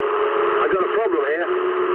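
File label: aircraft damaged mood low, speech English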